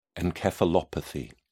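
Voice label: "encephalopathy", English, British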